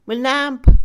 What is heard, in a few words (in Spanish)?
abrazar, Mixe, Mujer; Emociones; INALI